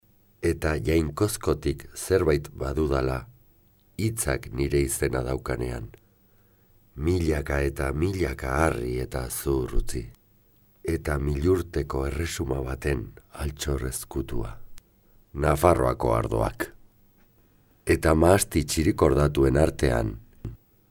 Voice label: locutor euskera

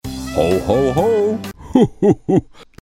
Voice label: papa noel multilenguaje navidad; locutor inglés y francés

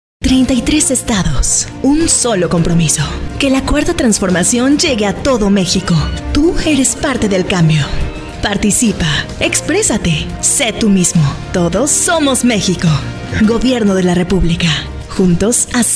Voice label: locutora mexicana mexican voiceover; locutora de México; locutores de México

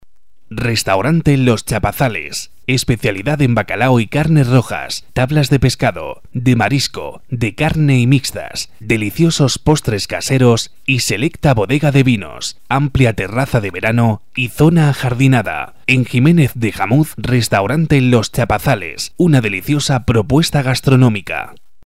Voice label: locutor castellano; voice over

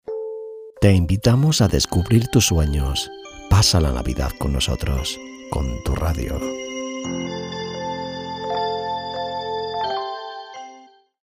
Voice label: jingle navidad; Indicativo navideño para emisoras de radio

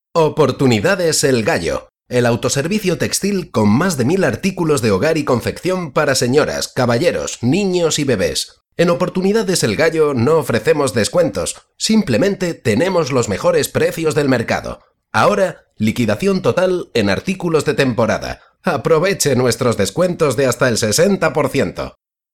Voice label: locutor spain

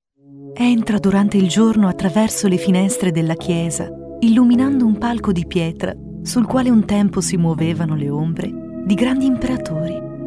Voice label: locutora italiana

